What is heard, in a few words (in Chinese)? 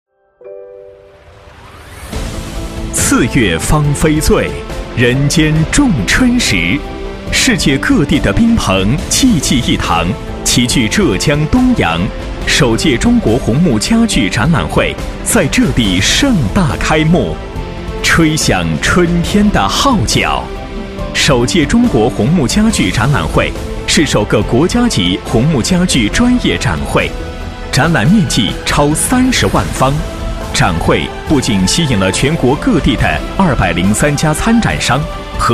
男 开场词 积极向上; 磁性稳重; 首届中国红木家具展览会